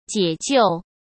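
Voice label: "解救", Mandarin Chinese; hsk; chinese-flashcards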